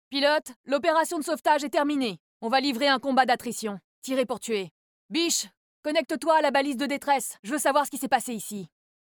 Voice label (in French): voix Commandant, Jeu Video; TitanFall; Commandant Sarah Palmer; halo 4